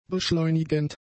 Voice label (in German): "beschleunigend", German; adjectives